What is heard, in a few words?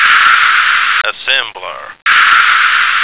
"assembler", American